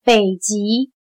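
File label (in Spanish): "北极", chino mandarin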